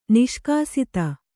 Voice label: "ನಿಷ್ಕಾಸಿತ", Kannada